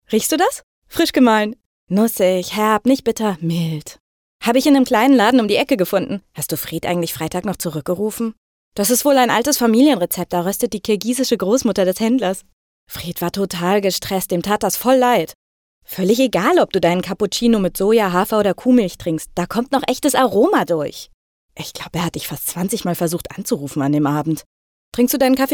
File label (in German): Haltung Flippig; flippig, aufgedreht